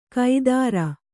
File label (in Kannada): "ಕೈದಾರ", kannada